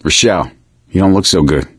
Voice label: suggest health, survivor voice; Left 4 Dead 2; Source Engine; video games; gambler